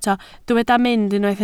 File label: "mynd", Welsh